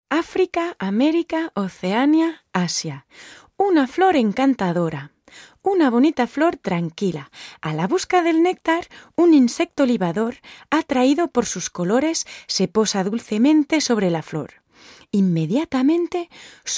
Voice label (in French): Doc enfants espagnol; documentaire (espagnol); Enregistrements dans la cabine speak